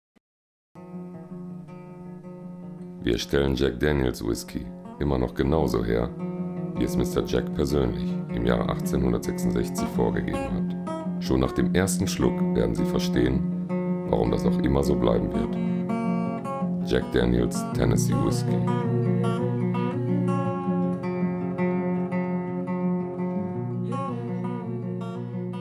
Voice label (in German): Werbung; Jack-Daniels